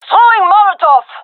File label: throwing molotov, gendarmerie fem; vo